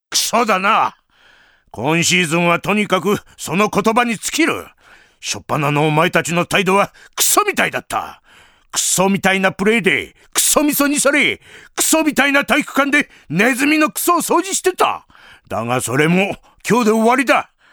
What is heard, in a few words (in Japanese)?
荒っぽい鼓舞